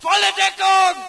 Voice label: ger take cover, player; Source Engine; dod